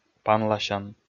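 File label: "панласян", Komi, male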